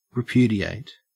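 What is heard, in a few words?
"repudiate", Australian English; pronunciations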